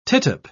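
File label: "tittup", British English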